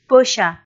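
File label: "пӧся", Komi, female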